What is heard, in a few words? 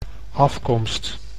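"afkomst", Dutch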